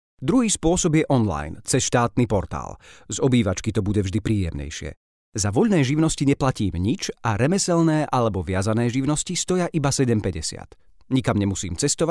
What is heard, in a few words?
Slovakia male; lektoring